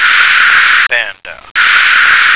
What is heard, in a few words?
"panda", American